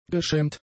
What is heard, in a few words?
"geschämt", German; adjectives